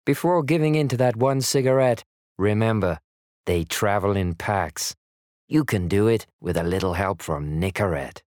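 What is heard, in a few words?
voice over; Nicorette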